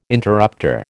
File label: "interrupter", American